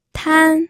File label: "tan1", pinyin